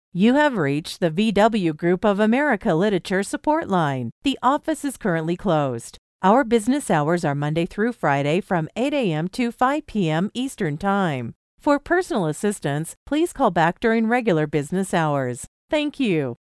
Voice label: female us; lektoring